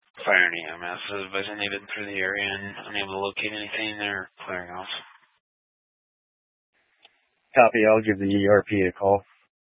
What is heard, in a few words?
Police Department Dispatch; scanner